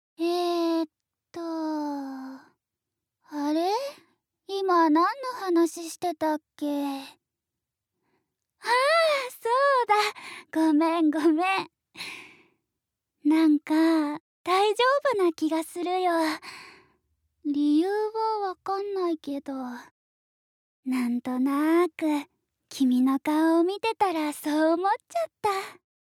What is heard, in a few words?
Gently girl